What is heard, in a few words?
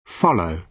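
"follow", British English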